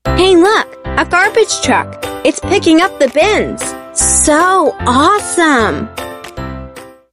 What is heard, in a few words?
English casual